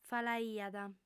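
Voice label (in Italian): "falaiat", lingua sarda, female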